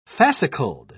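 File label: "fascicled", British English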